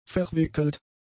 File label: "verwickelt", German; adjectives